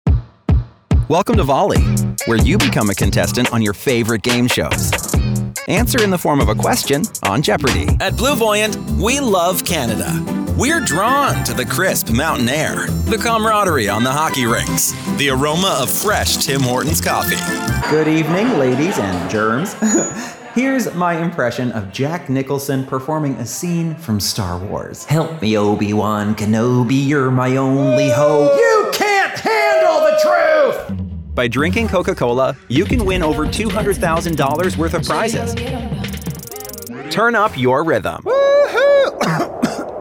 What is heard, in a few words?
commercial, Canadian Actor, Singer and Voiceover Artist; award-winning Canadian